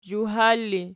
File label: "zhawhar", Archi